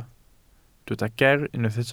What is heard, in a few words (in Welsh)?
"geer", Welsh